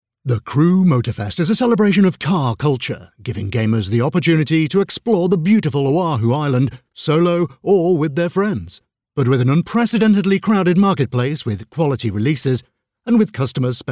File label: voiceover corporate announcement event